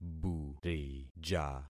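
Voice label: "burija", concatenated syllables; Affixing experiment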